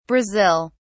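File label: "Brazil", British English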